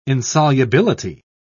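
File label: "insolubility", British English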